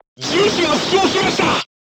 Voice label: voice message gunlayer wounded, crew tanks japanese